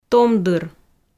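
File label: "томдыр", Komi, female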